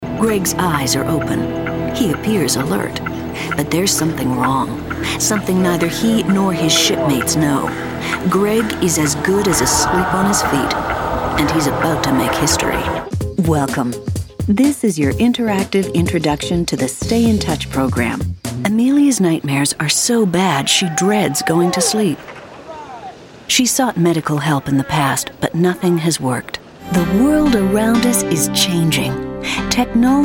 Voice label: Narration; TaDa